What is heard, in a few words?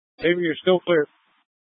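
Incident Tactical 3, Scanner audio recording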